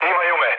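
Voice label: Serbian aircraft destroyed mood low, speech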